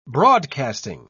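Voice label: "broadcasting", British English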